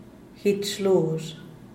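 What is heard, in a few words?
"gitch luxh", Zapotec, Díaz Ordaz; barba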